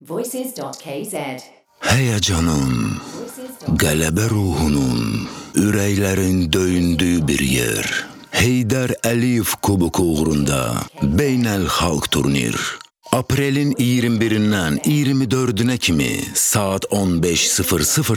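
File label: Voice Azerbaijani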